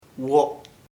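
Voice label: frog sapo, Chamacoco word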